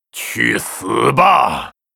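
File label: Grunt yes attack, Chinese; 苦工（魔兽争霸3）; 兽人; Time to die!; 去死吧！; 战吼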